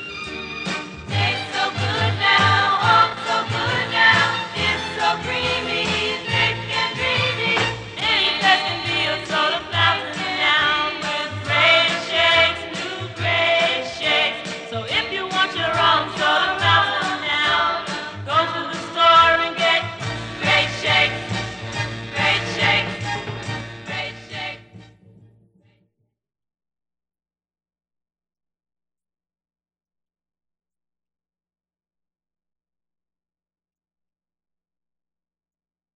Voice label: Great Shakers Spot

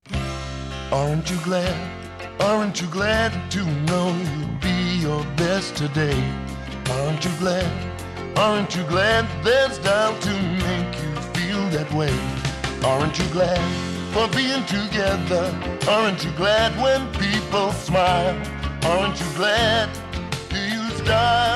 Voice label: Radio Commercials - Soap; Dial Soap

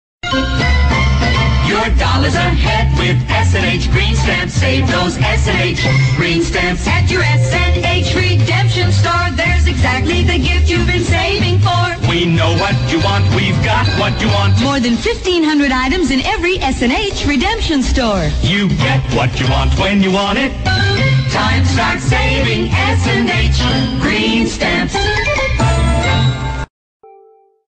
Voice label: Green Stamps commercial 1960s

